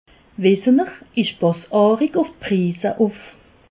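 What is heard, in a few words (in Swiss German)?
"prisse", Alsatian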